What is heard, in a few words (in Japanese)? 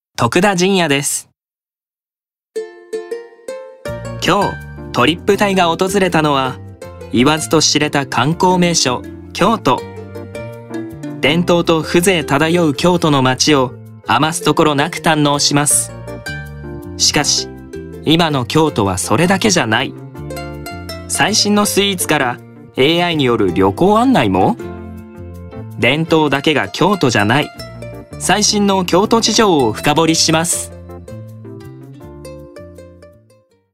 ナチュラル